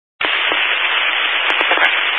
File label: Highway Patrol, scanner; DPS